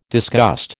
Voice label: "disgust", American